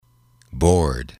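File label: "bored", English, male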